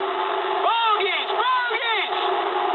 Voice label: eng enemy detected mood high, speech eng